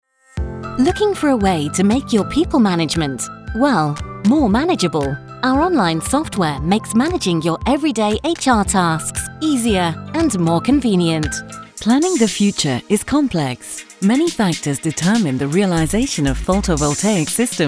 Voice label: Explainer